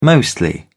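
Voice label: "mostly", British English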